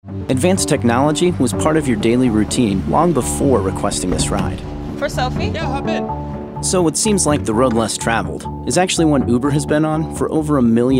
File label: men commercial; Young, millennial. Believable, authentic, guy next door.